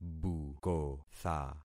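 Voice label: "bukotha", concatenated syllables; Affixing experiment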